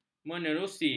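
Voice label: "menerusi", Malay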